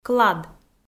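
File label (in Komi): "клад", Komi, female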